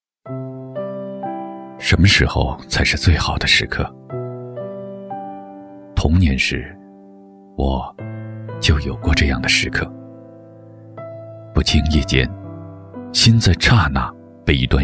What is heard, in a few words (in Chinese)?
男 旁白